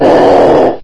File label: pain, ghost; svencoop; custom monsters